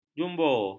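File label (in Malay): "jumbo", Malay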